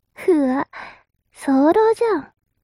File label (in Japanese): mob 女子 台詞